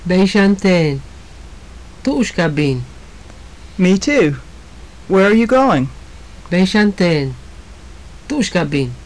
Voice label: conversation, maya; Beyxan teen. Tu'ux ka bin?; Me too. Where are you going?; JUNP'IIT MAYA